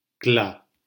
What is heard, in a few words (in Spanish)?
"cla", silabas; lectura